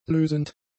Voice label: "lösend", German; adjectives